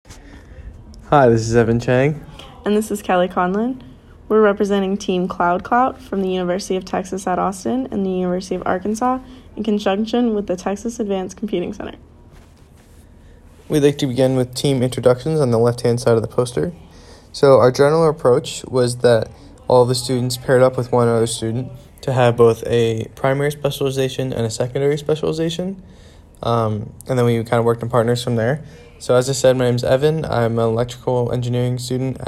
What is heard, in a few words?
Voiceover; ClusterComp 2020